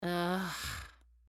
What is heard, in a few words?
Emote Negative